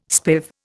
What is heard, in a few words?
"spiv", Indian